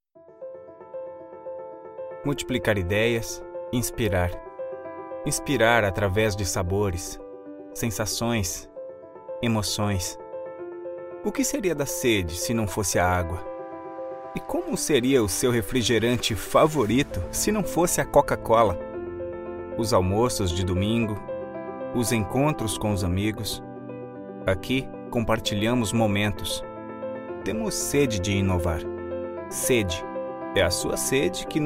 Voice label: PT BR, narr

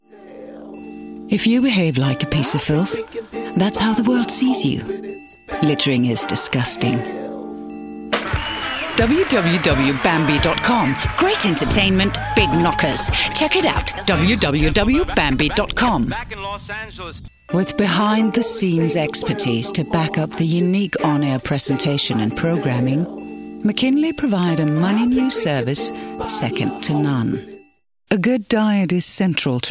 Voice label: F, DK DK, acc eng

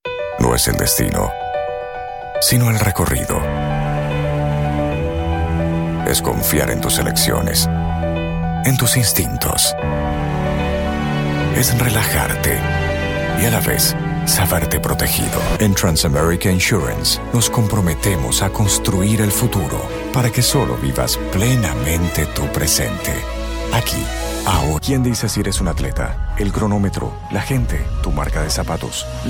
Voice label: M, SP AR, comm

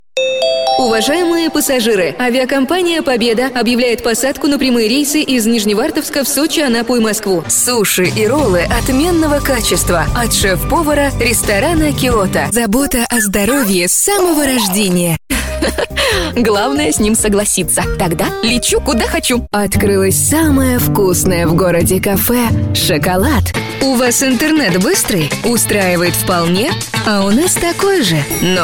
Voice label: F, RU RU

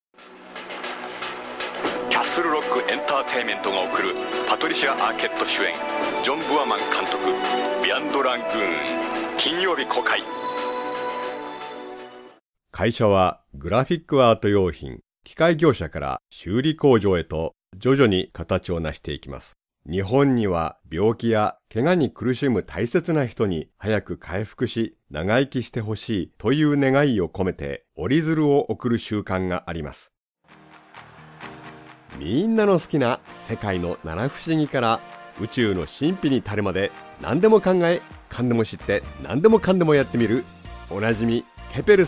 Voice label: M, JP JP

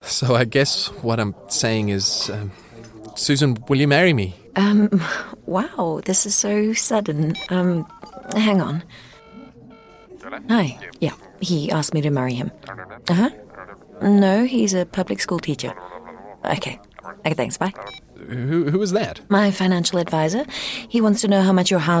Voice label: F, EN ZA, comm

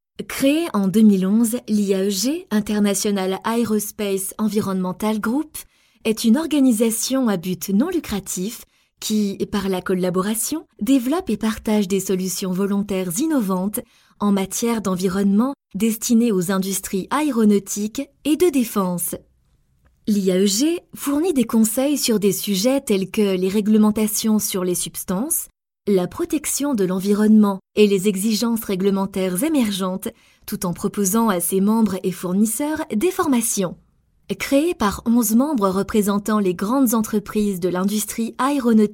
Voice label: F, FR FR, corp

